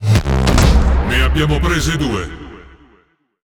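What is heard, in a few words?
Italian speech Double Kill; World Of Warships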